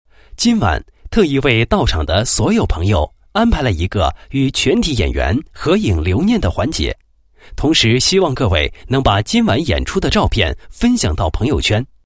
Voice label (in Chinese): 男-谢幕词-各位游客 谢幕词, 配音案例